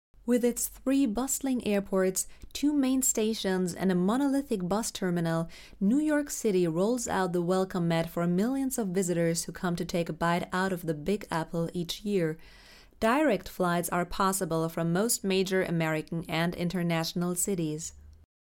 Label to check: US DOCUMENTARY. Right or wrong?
Right